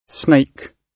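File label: "snake", British English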